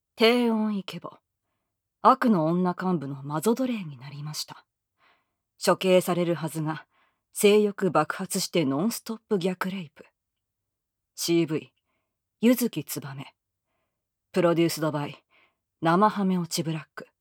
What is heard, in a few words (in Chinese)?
标题播报